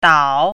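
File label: "dao3", Chinese pinyin, third tone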